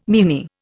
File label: "meanie", Indian